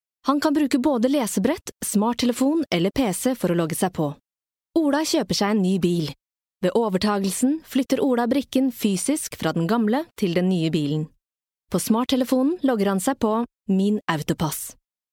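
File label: Norwegian, female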